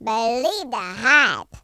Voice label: brilliant, Gangsta; speech